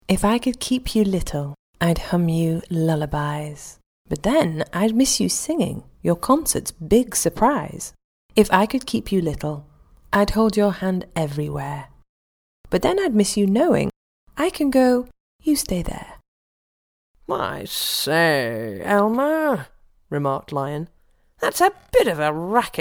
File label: audiobook childrens